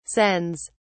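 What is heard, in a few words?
"sens", British English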